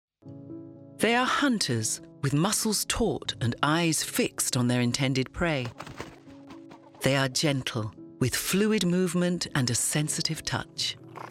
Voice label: Narration; Cats; Vocal Point

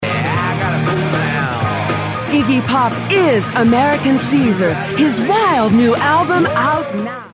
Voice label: Commercial; Vocal Point

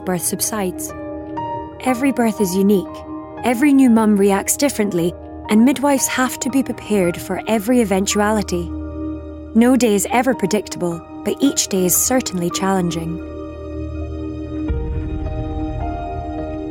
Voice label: Midwife Documentary Intro

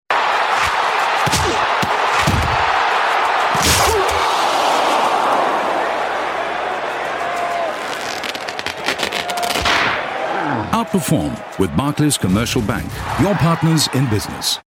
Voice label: Commercial Endline; Barclays

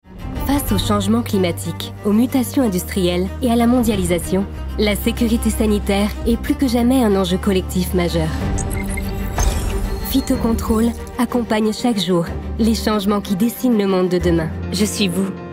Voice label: French Corporate

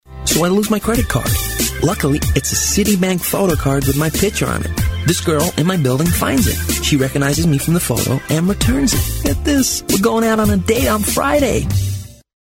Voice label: Commercial; Citibank

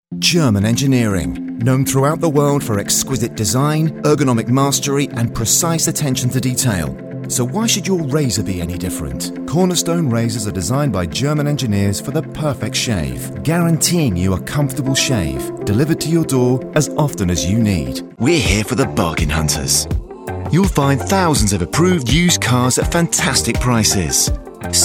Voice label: Commercial Mix

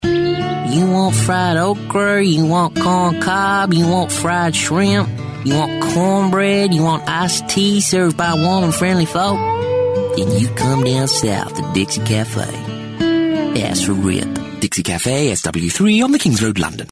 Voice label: Commercial; Dixy cafe; Vocal Point

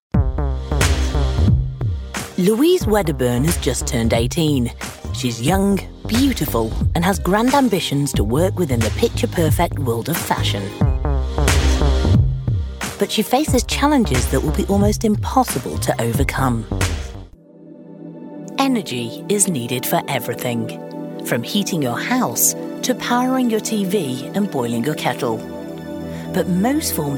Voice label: Documentary

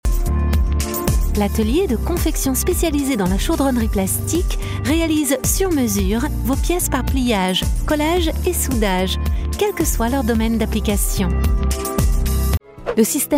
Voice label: French Corporate; Vocal Point

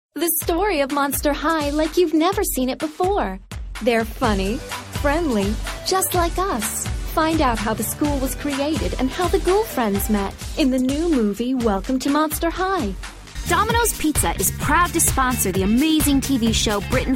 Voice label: Promos; Vocal Point

